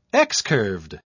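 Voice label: "excurved", British English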